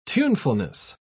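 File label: "tunefulness", British English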